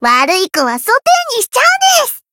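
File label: variable chant, Japanese; loveli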